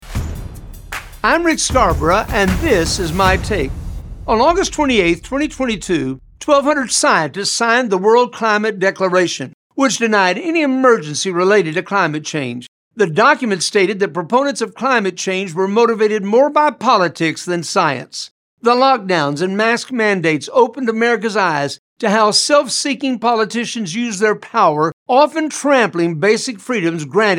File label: Radio Spot; Climate Change